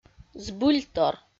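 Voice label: "збыльтор", Komi, female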